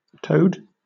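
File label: "toad", British English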